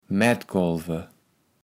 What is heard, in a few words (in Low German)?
"Mättkolwe", kaevels platt